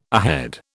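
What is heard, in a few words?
"ahead", British English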